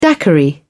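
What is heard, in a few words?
"daiquiri", British English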